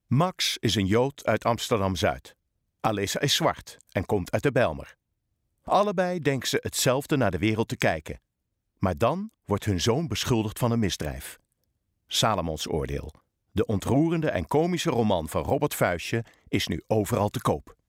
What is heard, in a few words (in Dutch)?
Commercial